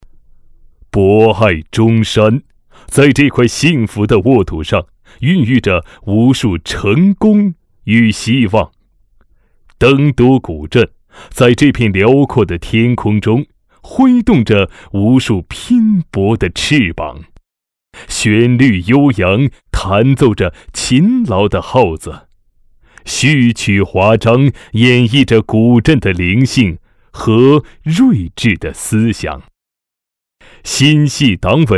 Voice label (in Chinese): 男 大气专题, 国语男声配音